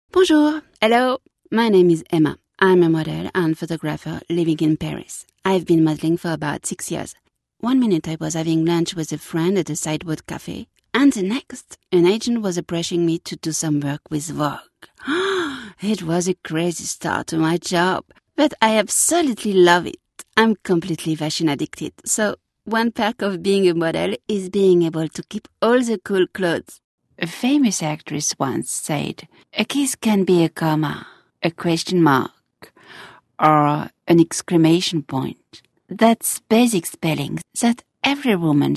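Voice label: French English, female, character; accent of france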